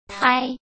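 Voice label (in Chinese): "胎", Mandarin Chinese; hsk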